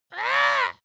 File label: dwarf disgusted